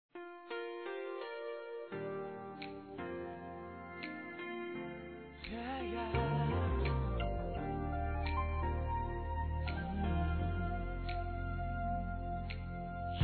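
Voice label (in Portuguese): Padrinho, Feminino; Aniversários; Aniv Familiar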